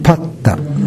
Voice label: "patta", minamiyamagata

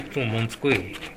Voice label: "montukoe", minamiyamagata

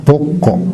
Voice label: "bokko", minamiyamagata

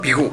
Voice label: "bego", minamiyamagata

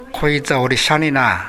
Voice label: "shane", minamiyamagata

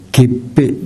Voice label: "geppe", minamiyamagata

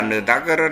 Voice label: "nudaguru", minamiyamagata

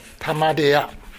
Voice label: "tamariya", minamiyamagata

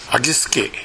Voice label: "agisuke", minamiyamagata

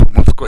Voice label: "montukoe", minamiyamagata

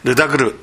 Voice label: "nudaguru", minamiyamagata

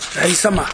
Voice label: "raisama", minamiyamagata

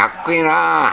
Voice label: "yakkoe", minamiyamagata; やわらかい。

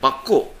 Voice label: "bokko", minamiyamagata

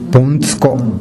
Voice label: "bontuko", minamiyamagata

